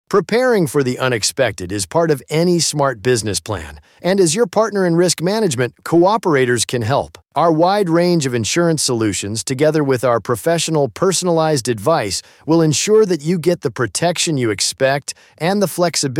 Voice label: Male